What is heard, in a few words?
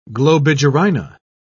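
"globigerina", British English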